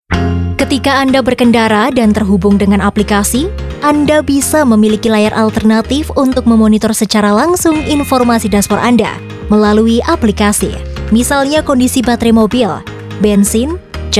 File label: INDONESIA CASUAL, She have a young voice, late teens through 30's